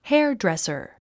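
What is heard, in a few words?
"hairdresser", English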